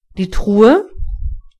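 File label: "chest", German; gcompris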